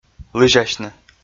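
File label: "лызясьны", Komi, male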